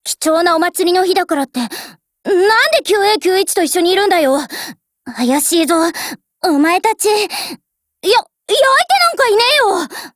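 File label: Japanese; AK74U; ALL HALLOWS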